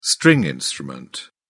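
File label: "stringed instrument", British English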